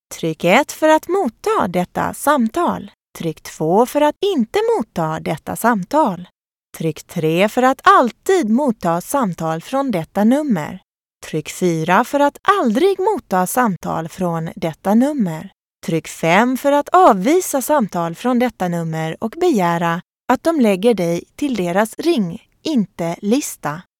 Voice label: Accept Call Navigation, Female Swedish Asterisk Voice Prompt